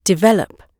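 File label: "develop", British English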